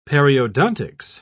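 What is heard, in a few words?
"periodontics", British English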